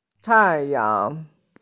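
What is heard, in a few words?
"tai4yang2", Mandarin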